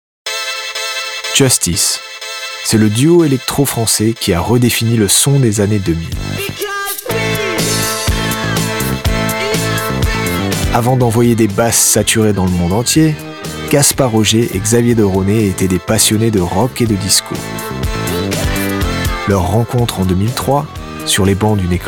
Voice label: male french documentary

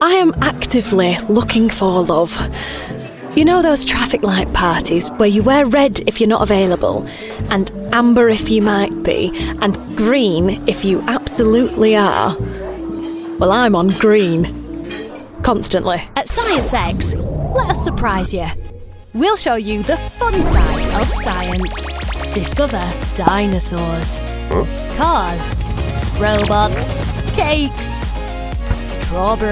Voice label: female northern accent commercial compilation; english and irish

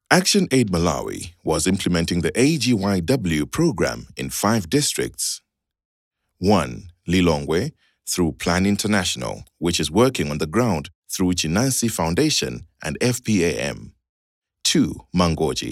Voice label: male, English with Malawian accent, documentary; unfpa actionaid

